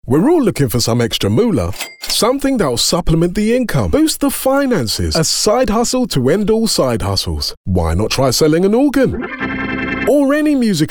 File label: Commercial